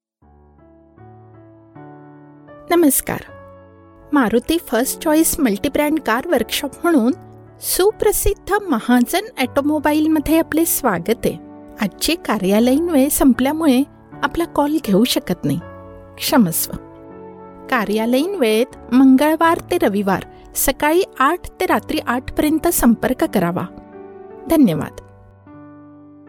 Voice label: IVR marathi